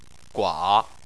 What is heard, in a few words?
"gua3", Chinese Phonetics